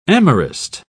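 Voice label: "amorist", English, British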